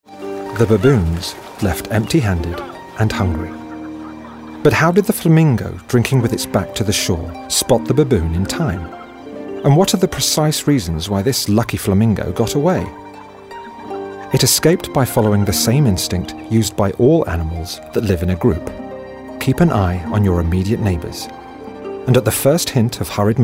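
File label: nature narration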